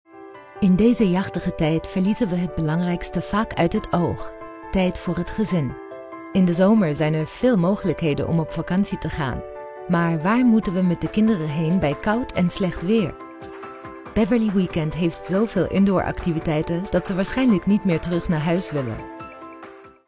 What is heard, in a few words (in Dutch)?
NL Imagefilm Vakantiecomplex; Beverly Weekend